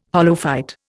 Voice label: "holophyte", Indian

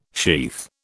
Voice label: "sheath", British

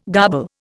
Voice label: "garble", Indian

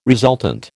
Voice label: "resultant", American

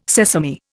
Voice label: "sesame", Indian

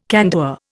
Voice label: "candour", Indian

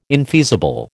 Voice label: "infeasible", American

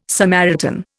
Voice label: "Samaritan", Indian

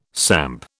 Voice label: "samp", British

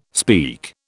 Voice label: "speak", British

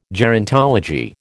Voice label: "gerontology", American

